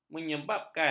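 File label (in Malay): "menyebabkan", Malay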